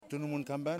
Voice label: "Sago Grass", Yimas